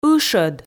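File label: "ышӧд", Komi, female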